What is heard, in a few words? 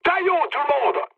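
French enemy detected mood med; War Thunder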